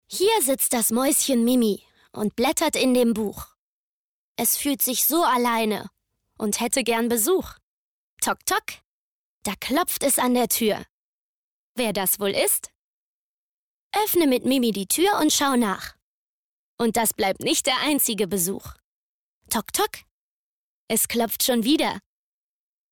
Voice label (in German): erzaehlerkinder